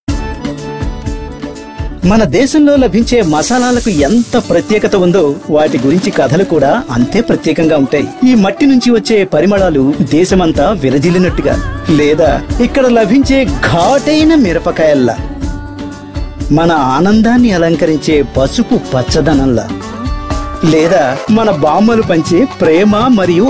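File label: Telugu, male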